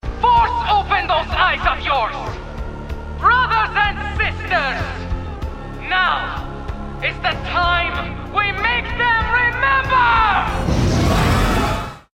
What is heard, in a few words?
russian cartoon aggro criminal leader opponent loud passionate angry; stan lee